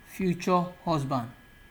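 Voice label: "future husband", Nigerian Pidgin, male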